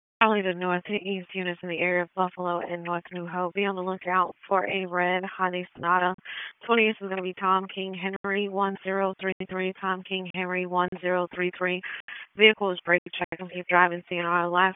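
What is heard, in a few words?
Raleigh Police Northeast District Dispatch; wake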